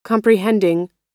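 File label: "comprehending", American English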